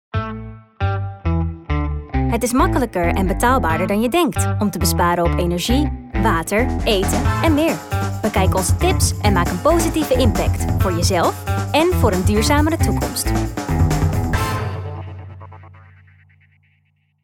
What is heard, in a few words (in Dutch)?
SERIEUS EN ZAKELIJK